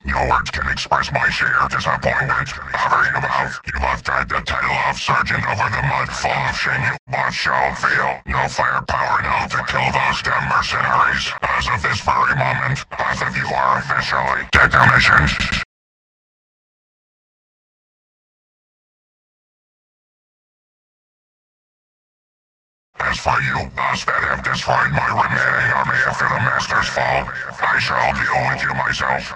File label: boss monologue